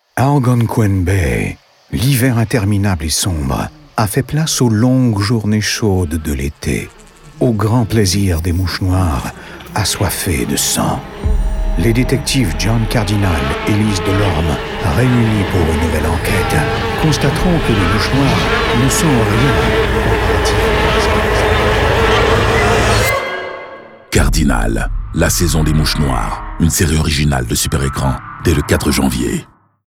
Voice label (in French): Bande Annonce; Cardinal Suer Ecran